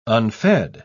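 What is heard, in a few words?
"unfed", English, uk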